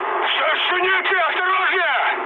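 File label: Russian damaged aa mood high, speech; War Thunder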